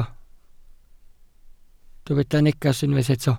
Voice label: "neges", Welsh